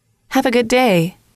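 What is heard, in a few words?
"have a good day", English, female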